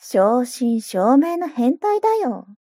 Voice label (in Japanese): mob 女子 台詞